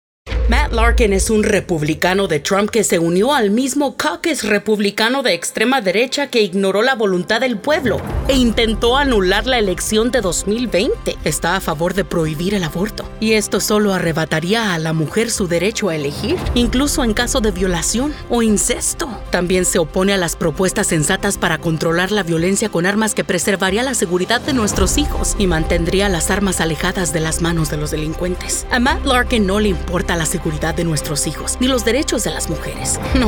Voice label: Political Ad; Hispanic Political Voiceover